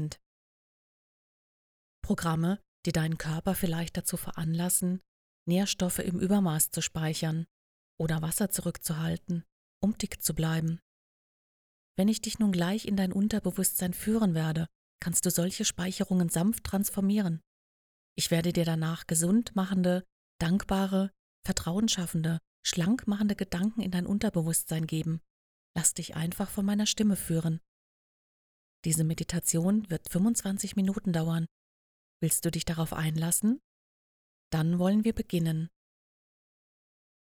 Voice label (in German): Meditationsreise Abnehmen